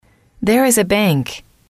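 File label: there is a bank, female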